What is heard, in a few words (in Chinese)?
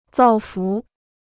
"造福", Chinese